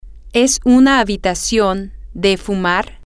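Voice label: is it a smoking room, spanish, female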